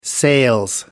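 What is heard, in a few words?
"sales", British English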